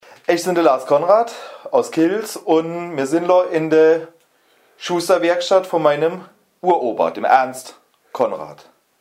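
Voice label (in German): vorstellung, Hunsrück; Külz (Hunsrück)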